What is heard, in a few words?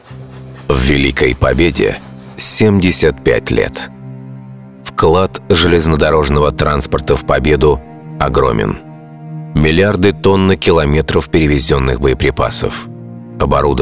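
documentary film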